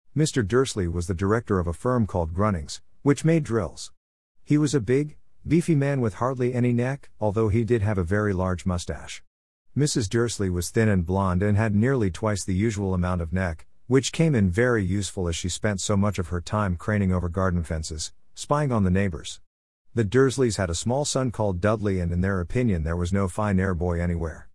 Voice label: audiobooks example